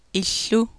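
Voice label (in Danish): grønlandsk illu; [iɬ:u]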